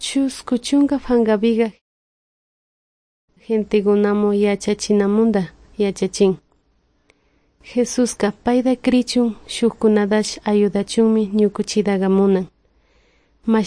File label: good news quichua de salasaca